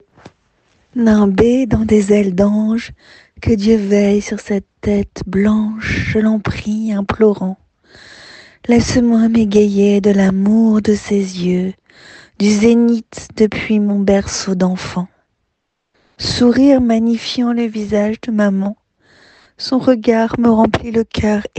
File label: french; Prancūzų (FR)